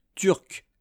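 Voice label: "turc", French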